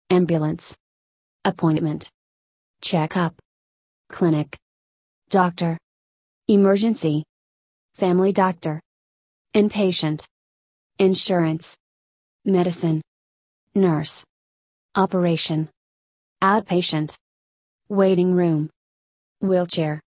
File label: usa; hospitals